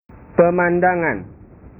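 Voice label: "pemandangan", Indonesian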